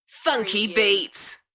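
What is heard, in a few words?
British female Funky beats; beanstalk audio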